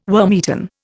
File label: "wormeaten", Indian